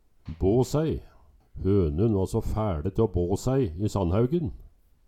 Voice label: "bå sæi", Numedalsmål; Hønun va så fæLe te å bå sæi i sannhæugen

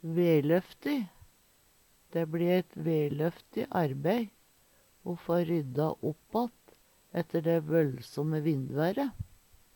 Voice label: "veløfti", Numedalsmål; Dæ bli ett veløfti arbei o få rydda opp ætte dæ vøllsomme vindvære.

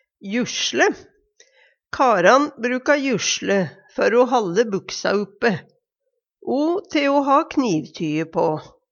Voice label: jusle, Numedalsmål

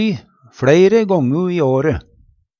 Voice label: fLøgti, Numedalsmål; brunstperiode, paringstid; Uttrykk

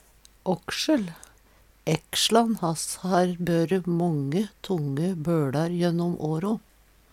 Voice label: "åksel", Numedalsmål; ÆksLan hass har børe mange bøLar jønnom åro.; Substantiv hokjønn

